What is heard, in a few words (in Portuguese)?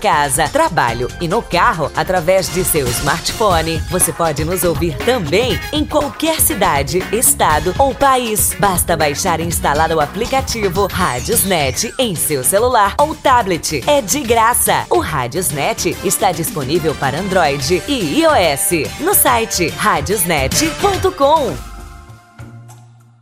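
Voce sabia que a Internet, feminino; RadiosNet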